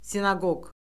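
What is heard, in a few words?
"sinaqoq", Azerbaijani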